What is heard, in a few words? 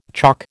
"chalk", American